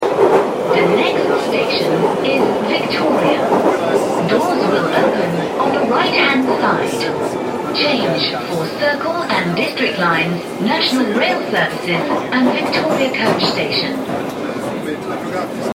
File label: Line Victoria Next Victoria, Underground